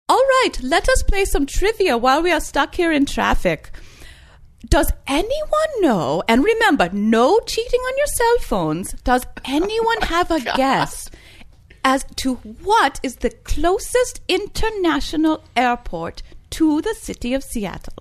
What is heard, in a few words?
speaking as bus driver with scandinavian accent